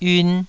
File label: "yun2", taiwanese Syllables